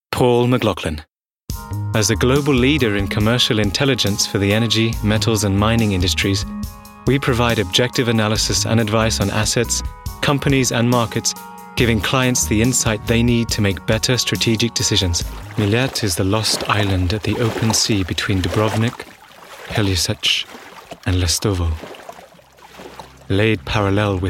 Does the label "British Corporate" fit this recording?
Yes